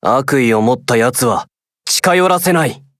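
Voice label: start, Japanese; sdorica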